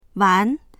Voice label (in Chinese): "wan2", voices; 完; 仕上げる、尽きる